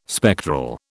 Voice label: "spectral", British